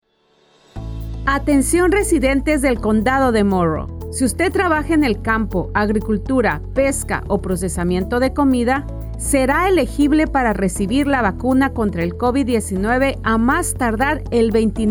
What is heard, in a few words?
Esp; Morrow; Vaccine Radio Spots; covid19